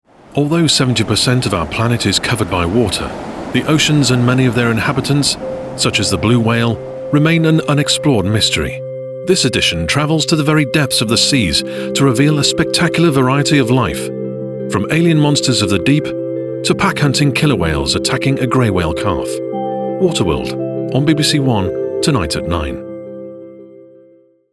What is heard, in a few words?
Promo; BBC One